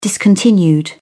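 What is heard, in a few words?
"discontinued", English, British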